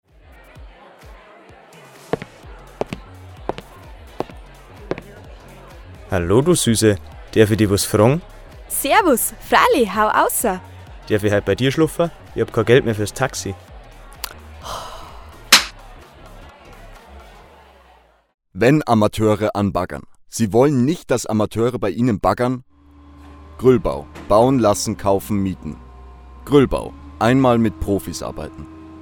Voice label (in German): Werbung; Gruell Bau